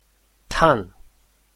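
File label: "tan4", Mandarin Chinese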